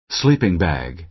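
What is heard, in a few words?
"sleeping bag", American English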